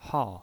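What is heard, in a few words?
"hao", Mandarin Chinese, fourth tone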